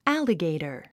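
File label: "alligator", English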